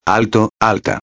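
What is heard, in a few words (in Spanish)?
"alto", Spanish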